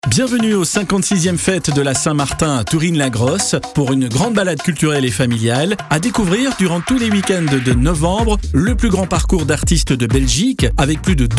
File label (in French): Radio Passion annonce fetes; annonce fetes stmartin